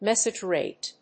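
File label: "message rate", English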